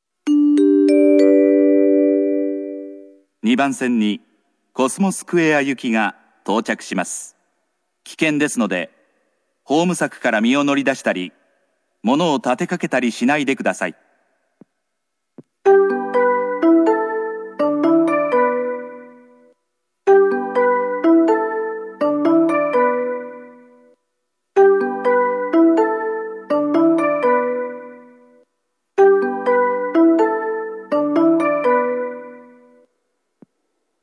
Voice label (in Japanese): コスモスクエア行き, 中央線車内放送・駅放送; (メロディー更新)